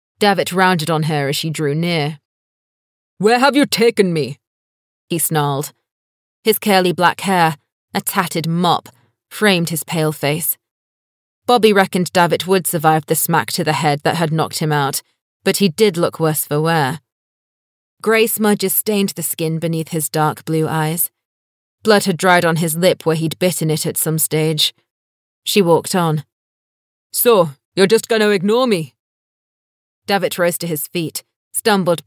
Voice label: lancashire voice audiobook, Lancashire Female